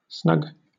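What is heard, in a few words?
"snug", British English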